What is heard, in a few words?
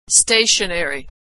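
"stationery", American English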